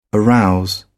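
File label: "arouse", British English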